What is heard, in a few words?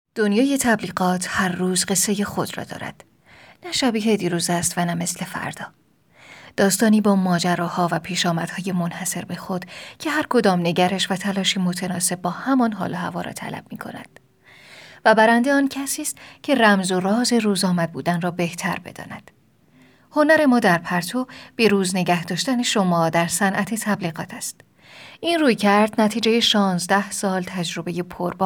narrator